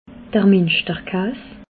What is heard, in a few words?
"minschterkaas", alsace